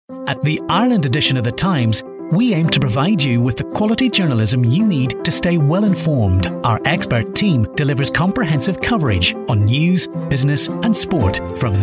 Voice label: commercial